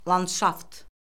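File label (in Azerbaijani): "landşaft", Azerbaijani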